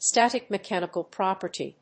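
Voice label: "static mechanical property", English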